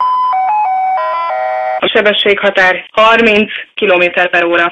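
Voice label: "Vasút", Hungarian, female; GPS; voice female; Igo 8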